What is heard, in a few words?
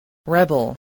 "rebel", english